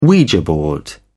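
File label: "ouija board", British English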